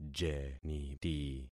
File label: "jeniti", concat syllables; Affixing experiment